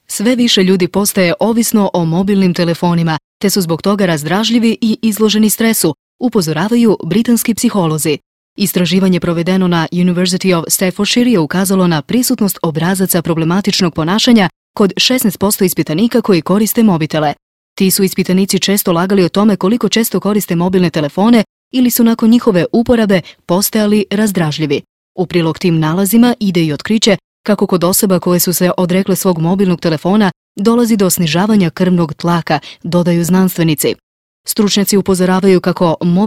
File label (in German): croatia female filmvertonung sprecher